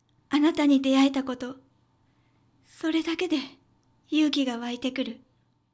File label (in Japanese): josei, 女性; 女性 「あなたに出会えたこと、それだけで勇気が湧いてくる。」; ボイス